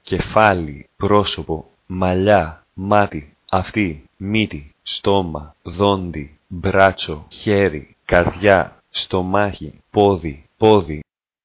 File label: greek body